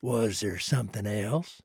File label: trader male neutral sale accepted